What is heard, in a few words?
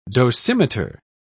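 "dosimeter", British English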